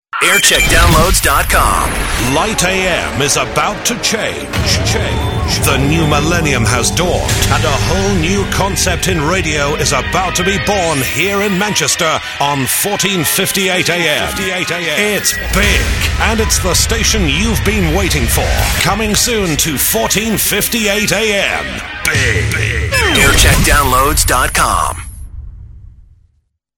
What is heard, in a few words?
prelaunch promo; big 1458